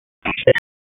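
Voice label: Fire Department HQ Dispatch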